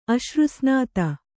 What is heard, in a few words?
"ಅಶ್ರುಸ್ನಾತ", Kannada; aśrusnāta